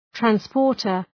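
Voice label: "transporter", English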